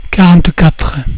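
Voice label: "quarante-quatre", French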